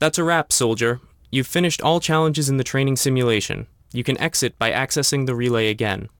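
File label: tutorial new; Bionic Commando 2009 PC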